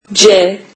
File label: turkish alphabet c